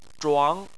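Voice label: "zhuang" Chinese, second tone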